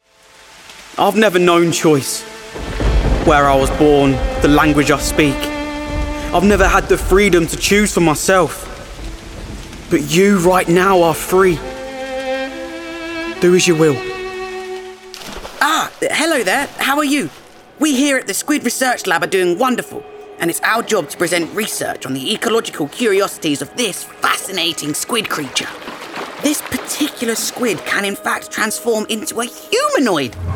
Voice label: Video Game Reel, Cheerful, direct and enthusiastic voice with an outstanding clarity